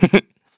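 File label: Vox Happy, Korean; Evan; kingsraid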